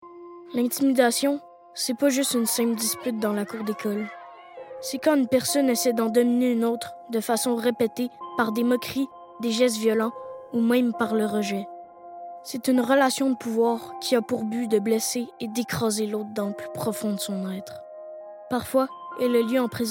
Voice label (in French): voix publicitaires; Demo